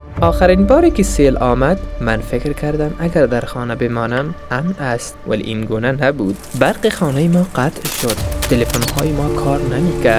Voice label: radio ad know your risk dari